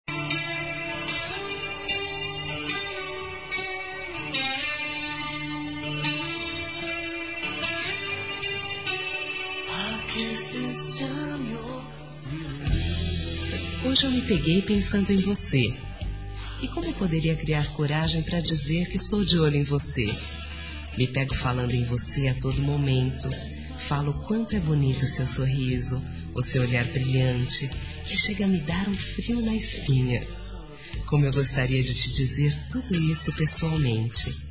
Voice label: ANIV. PAQUERA F; Aniversários; ANIVERSARIO romantico; fem

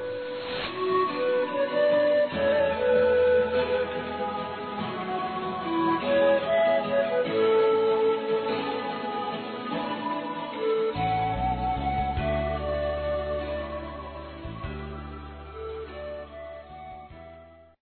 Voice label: NATAL E ANO NOVO ROMANTICO; Telemensagens; fem; Misto

